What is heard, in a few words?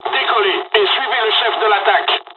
takeoff, dialogs french; hon jpn second wave; War Thunder